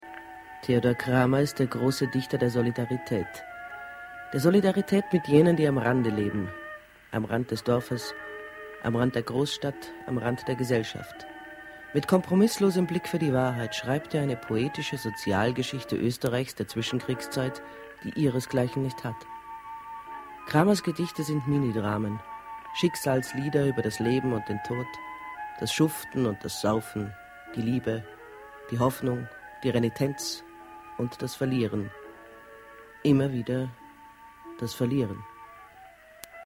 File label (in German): tv doku